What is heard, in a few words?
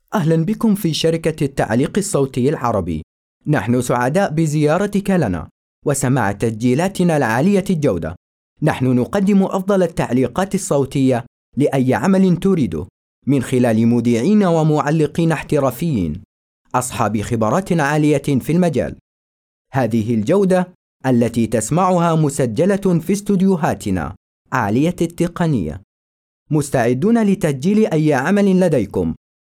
Gulf Arabic Male; The Arabic voice over company